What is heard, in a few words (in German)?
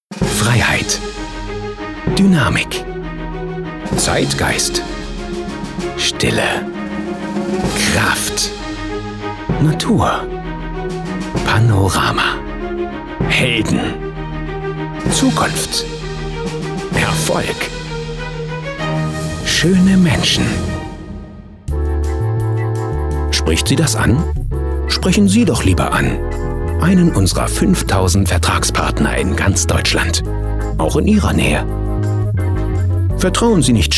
Autohaus, BER